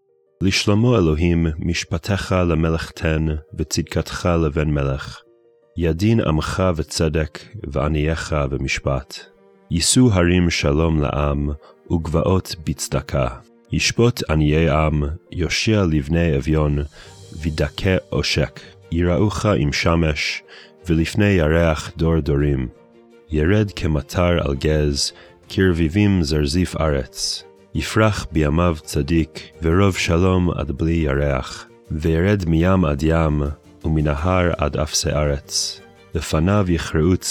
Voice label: poetry and prayer psalm hebrew; Meir Soloveichik